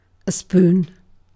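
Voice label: "spoon", British English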